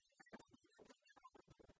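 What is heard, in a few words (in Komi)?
кымын арӧс батьыдлы да мамыдлы, Komi, female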